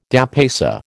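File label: "dépaysé", American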